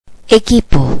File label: "hardware", Spanish